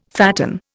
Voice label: "fatten", Indian